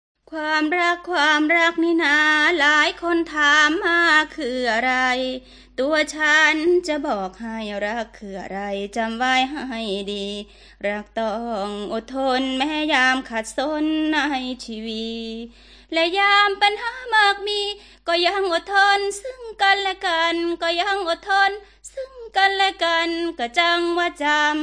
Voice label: good news thai northeastern